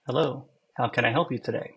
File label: mic airpods; Mic in Apple AirPods